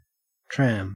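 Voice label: "tram", Australian English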